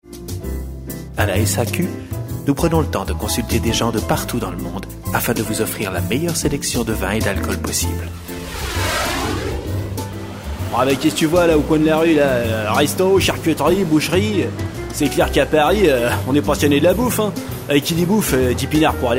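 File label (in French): voix accents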